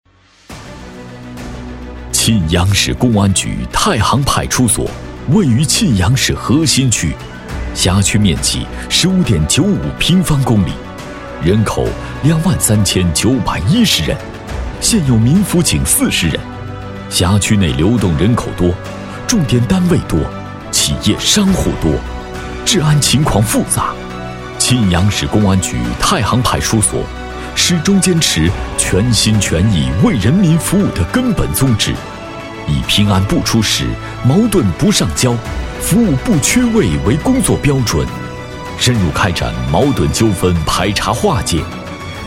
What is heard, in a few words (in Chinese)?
男 派出所专题